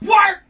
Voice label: "work", voice